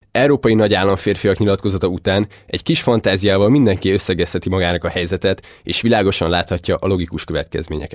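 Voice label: Hungarian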